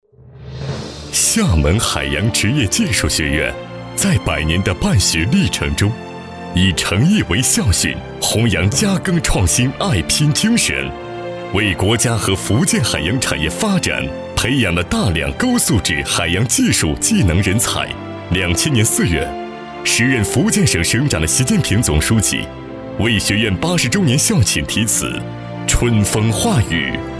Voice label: 男-学校宣传; 厦门海洋职业技术学院

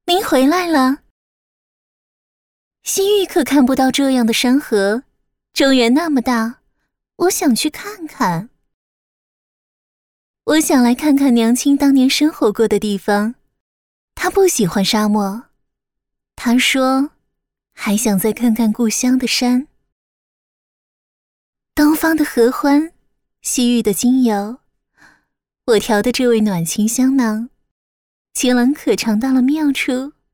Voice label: 女 角色游戏 成年女 略妩媚

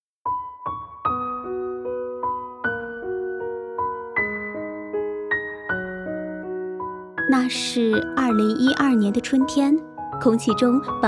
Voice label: 女, 音乐; 沙画

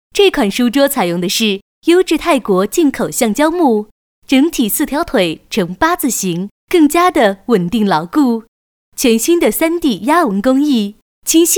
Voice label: 解说-桌子产品解说

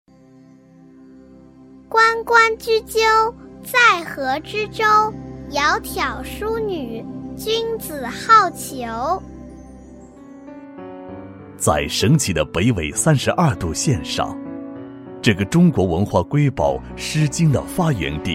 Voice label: 男-专题; 房县电商

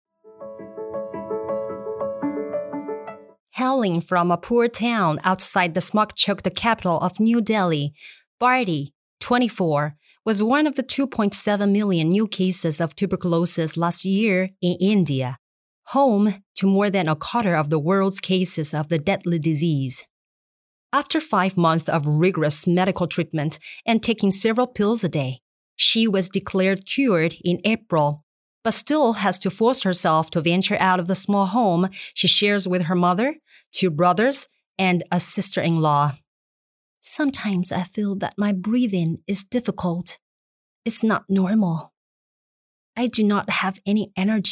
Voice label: 英女 中英双语 新闻播音

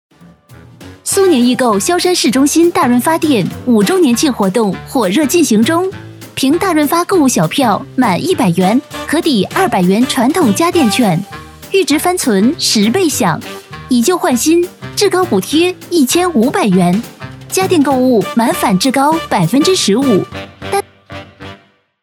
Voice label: 女 促销; 苏宁

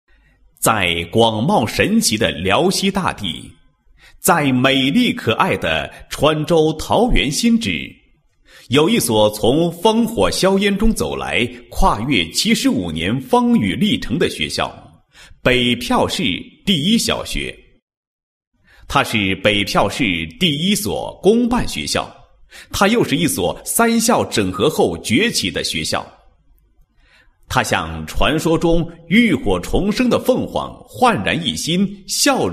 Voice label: 男 高音 企业专题; 特色办学谱华章; 国语男声配音

